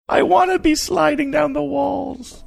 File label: funny